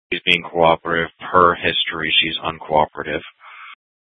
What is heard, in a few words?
Dispatch; Scottsdale